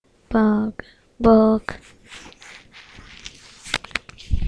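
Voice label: "bog", spoken word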